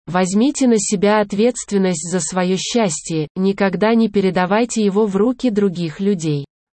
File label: Russian